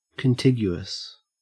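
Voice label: "contiguous", Australian English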